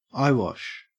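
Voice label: "eyewash", Australian English; pronunciations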